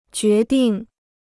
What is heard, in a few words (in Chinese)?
"决定", Chinese